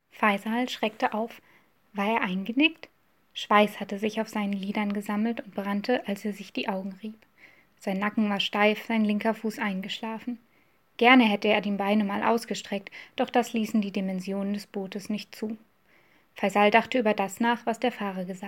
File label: German; wissenschaftliche kurzgeschichten